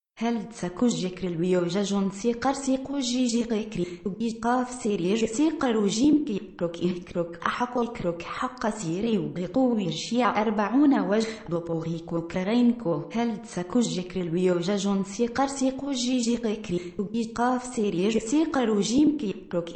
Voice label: Random Female Voice Speaking Random Things